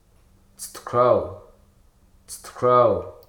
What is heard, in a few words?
"inebriated", Hruso